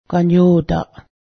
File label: canoe, Cayuga